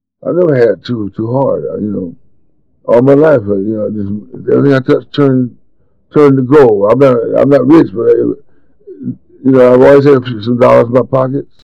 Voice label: Rap